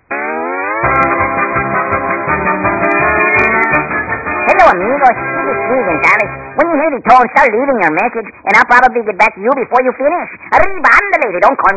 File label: Fast Message; porkypig